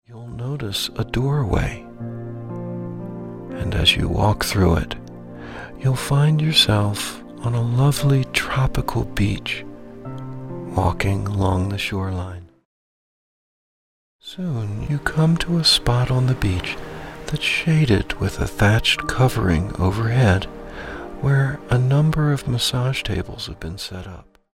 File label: Caregivers Meditation